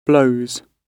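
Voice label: "blows", British English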